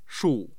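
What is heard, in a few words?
"shu4", Mandarin Chinese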